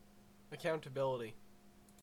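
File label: "accountability", Canadian English; pronunciation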